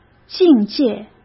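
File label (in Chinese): "境界", Mandarin Chinese; chinese-flashcards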